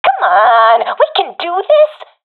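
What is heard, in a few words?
round losing, professional female; vo; Counter Strike Model Pack; CS:GO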